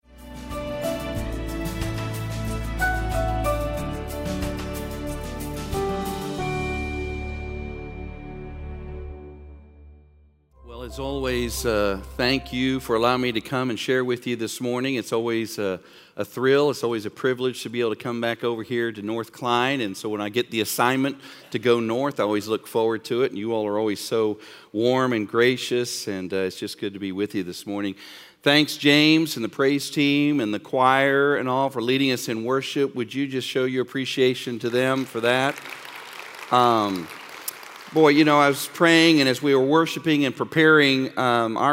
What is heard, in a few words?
Sermon English